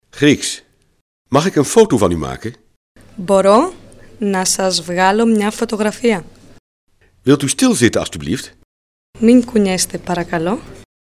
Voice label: grieks; Mag ik een foto van u maken?

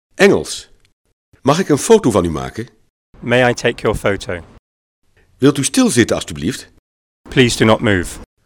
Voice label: engels; Mag ik een foto van u maken?

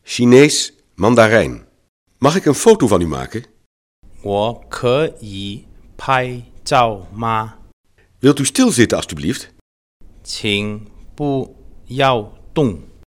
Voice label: chinees; Mag ik een foto van u maken?

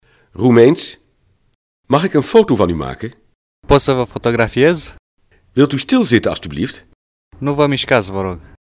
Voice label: roemeens; Mag ik een foto van u maken?

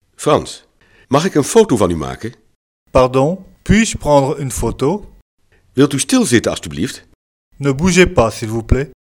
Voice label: frans; Mag ik een foto van u maken?

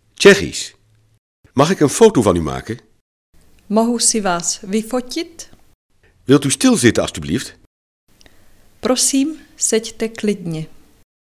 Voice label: tjechisch; Mag ik een foto van u maken?

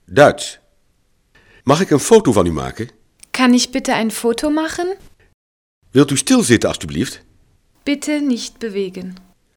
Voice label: duits; Mag ik een foto van u maken?

